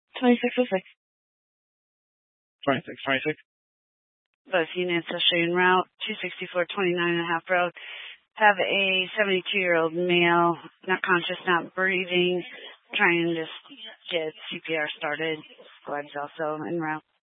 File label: Sheriff Dispatch; Mesa County